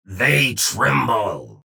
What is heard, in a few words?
vo chatter positive; strogg infiltrator; frag